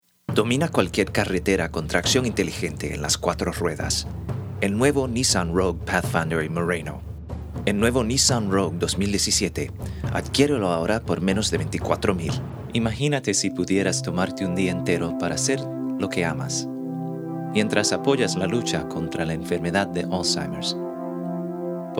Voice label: Spanish

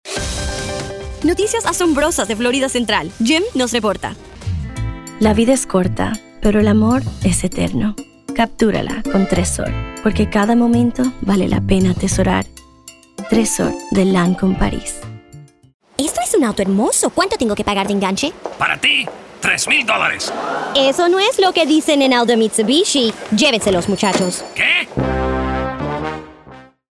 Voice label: Spanish

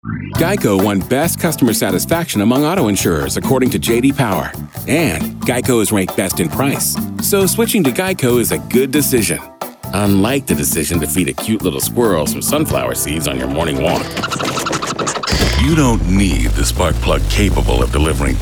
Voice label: Male, commercial

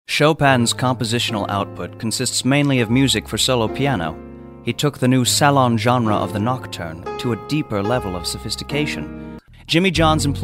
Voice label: narrations

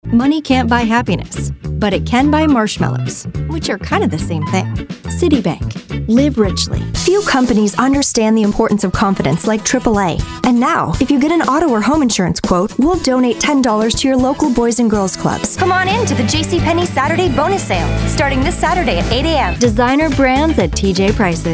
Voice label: commercial

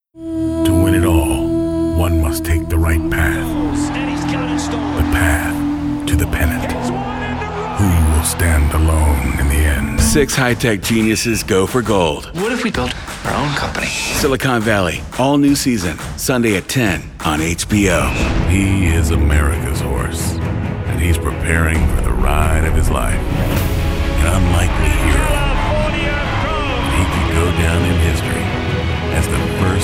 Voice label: Male, promo

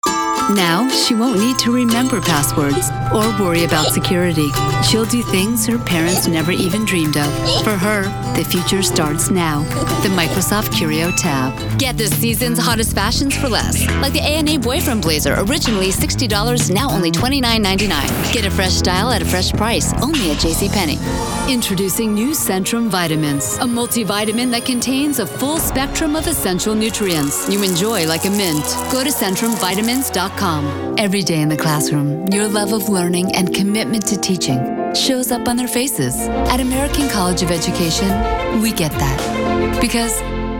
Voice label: Commercial

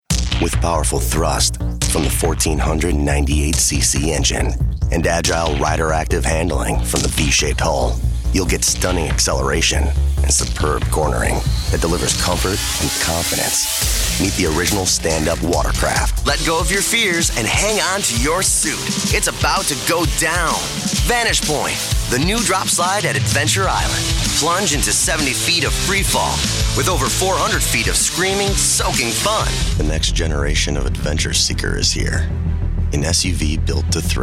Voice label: Male, commercial

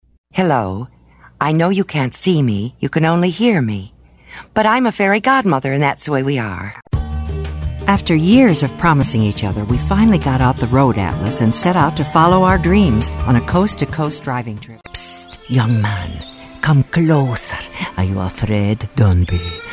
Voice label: Female Commercial

